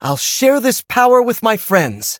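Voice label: level up, English; cookie run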